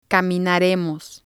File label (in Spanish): "caminaremos", spanish